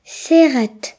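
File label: "shut", Breton; gcompris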